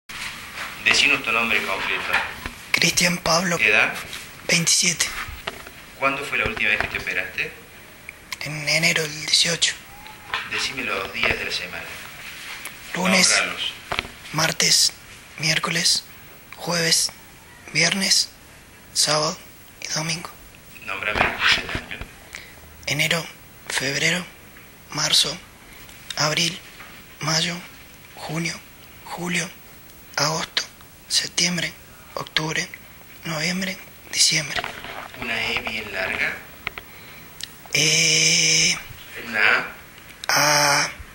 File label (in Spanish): PRE OP, Sonido pre operatorio, Papilomatosis. Hombre 27 años; Score de severidad de Derkay 14